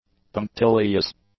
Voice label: "punctilious", english; adjectives